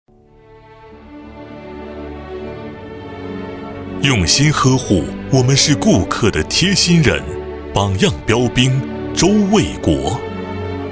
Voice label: 专题 颁奖 感动中国风格颁奖, 男国

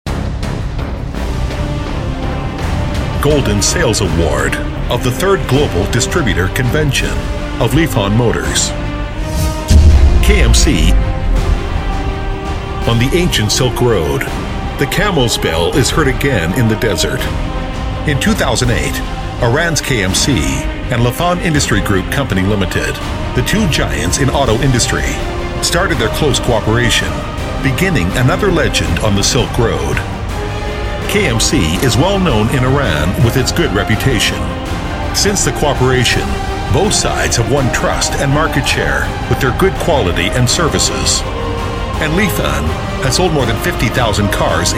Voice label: 外籍男英 外语 美式英语 颁奖 沉稳

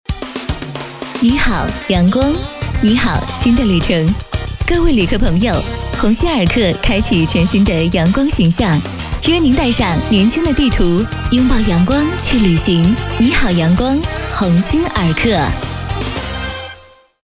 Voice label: 广告 服装 温暖; 鸿星尔克

